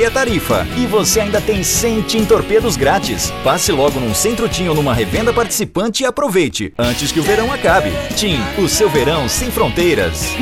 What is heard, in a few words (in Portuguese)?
Spot, Locução para spot promocional